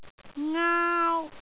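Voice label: "aau1", Cantonese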